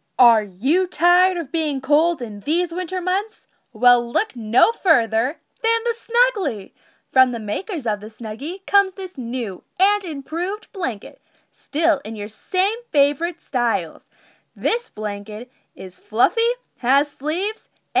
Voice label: radio speech

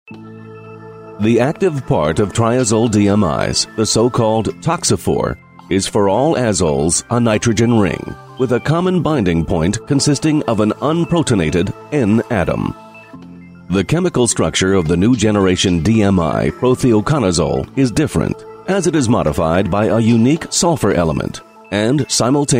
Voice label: vo science narration

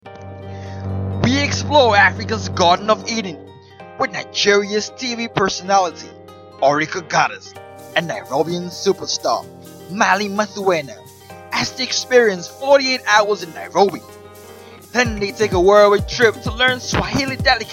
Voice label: voiceover

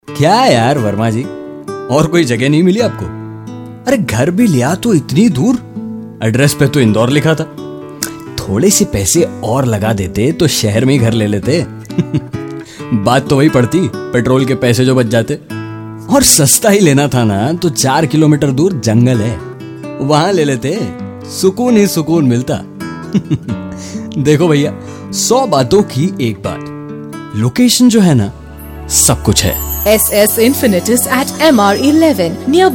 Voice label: radio commercial; SS Infinitus

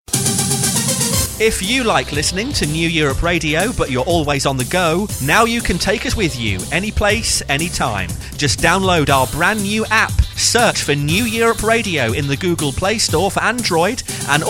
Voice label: radio imaging; europe radio

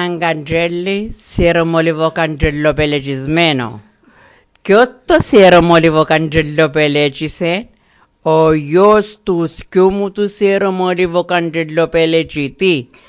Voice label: a Greek language tongue twister

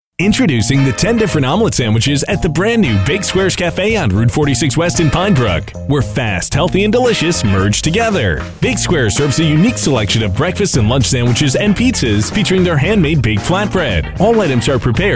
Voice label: radio commercial

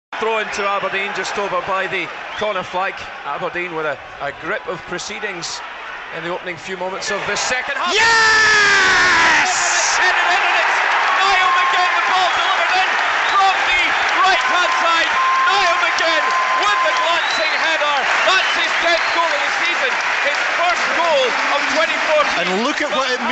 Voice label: tv commentary; Red TV; Niall McGinn's goal

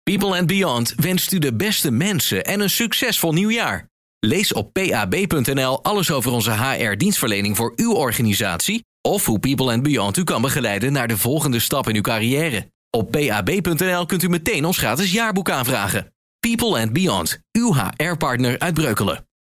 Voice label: radiocommercial; People and Beyond

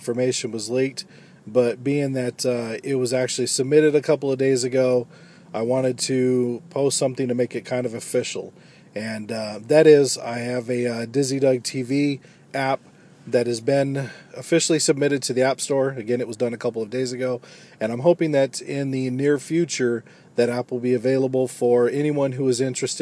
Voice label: app announcement

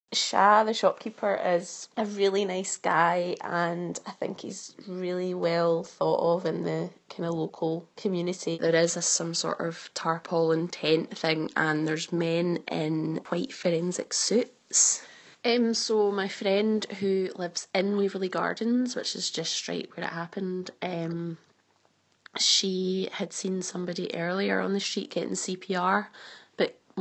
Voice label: woman speaks of shock; lovely shopkeeper killed in glasgow stabbing attack

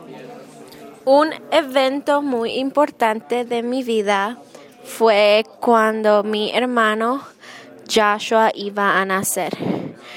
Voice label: spanish practice oral

